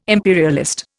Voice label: "imperialist", Indian